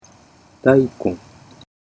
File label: "daikon", Japanese; utterance